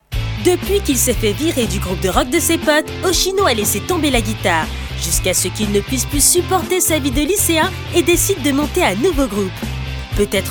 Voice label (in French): pub radio jeune amical affirmé dynamique promo, voix off; FULL AND THE ROCK.